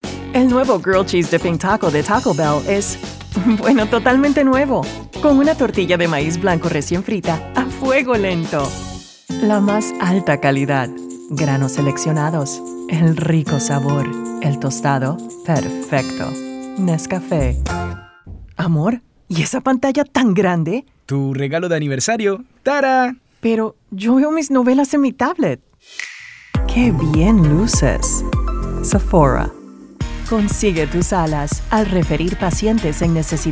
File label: voiceover commercial women; chicago